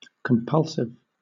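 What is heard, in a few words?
"compulsive", British English; pronunciations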